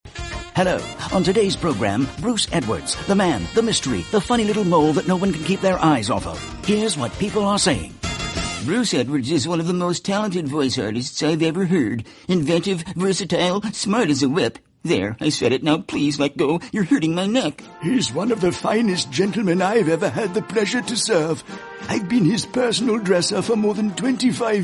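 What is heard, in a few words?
English Canadian M Character; accent of canada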